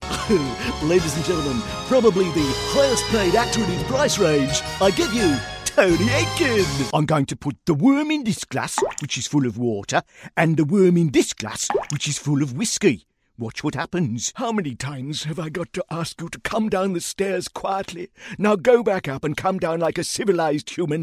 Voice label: Character

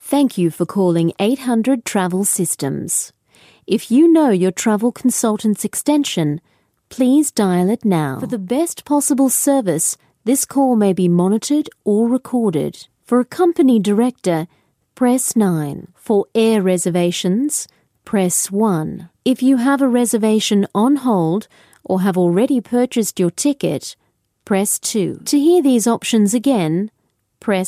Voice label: IVR

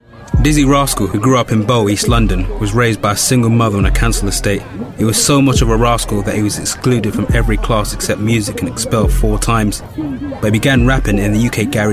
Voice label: Narration

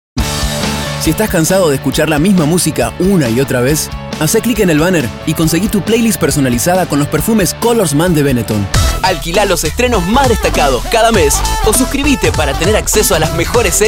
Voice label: ARGENTINIAN SPANISH Commercial

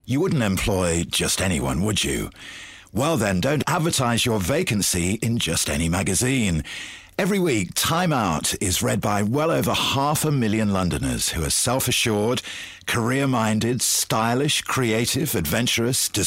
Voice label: Narration

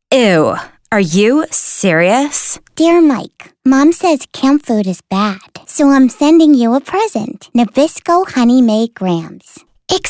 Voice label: Children and Teen

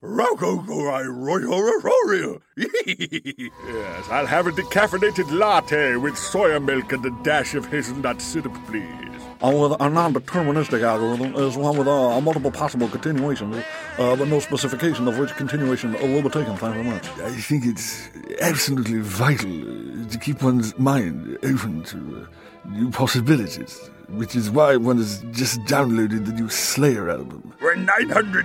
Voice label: Impressions

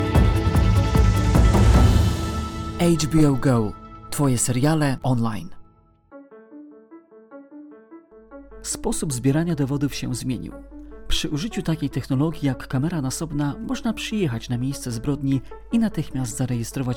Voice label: Polish commercial

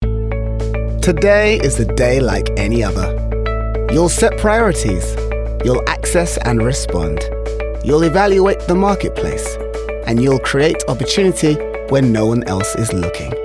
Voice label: Corporate

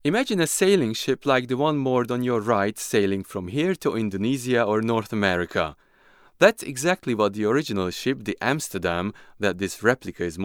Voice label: ENG

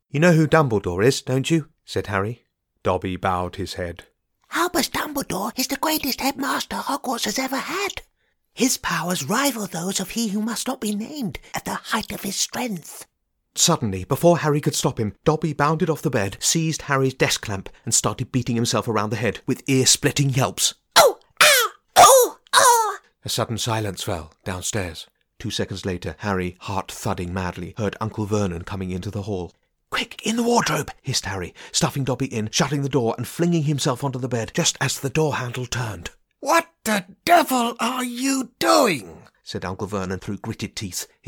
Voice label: Audiobook

